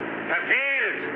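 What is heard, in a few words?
German bomb failure mood low, speech; War Thunder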